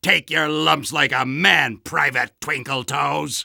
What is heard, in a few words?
Soldier taunts